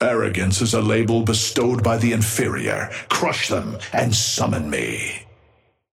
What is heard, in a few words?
male ally lash start; Patron